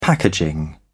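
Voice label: "packaging", British English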